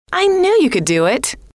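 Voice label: i knew you could do it, female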